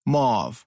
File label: mauve, American